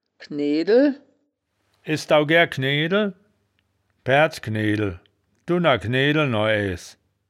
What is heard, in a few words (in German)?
"kneedel", wittlicher woerterbuch; das wittlicher woerterbuch; woerterbuch vertonung